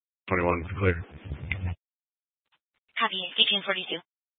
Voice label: Fire Dispatch, scanner